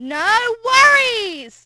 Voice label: worry, Brit; Splashdown; XBOX